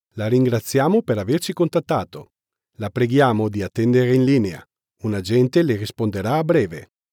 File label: IVR, italian